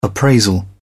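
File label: "appraisal", British English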